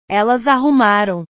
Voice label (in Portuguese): "elas arrumaram", portugues